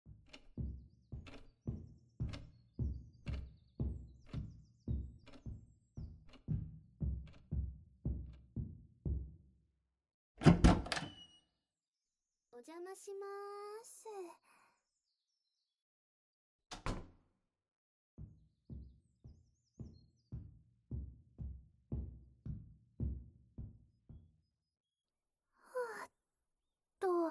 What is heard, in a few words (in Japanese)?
接近ディープキス, ASMR